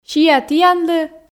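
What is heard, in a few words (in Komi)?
"сиа тіянлы", Komi, female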